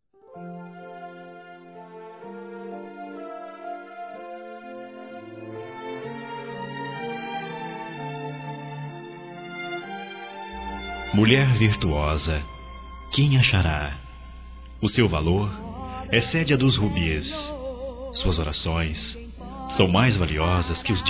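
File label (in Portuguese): EVANGELICA, male, Telemensagens Dia das Mães; Masc; Telemensagens 2017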